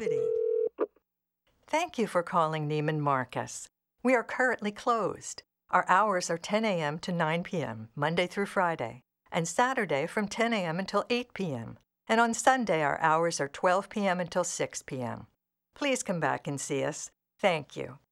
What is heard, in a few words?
narration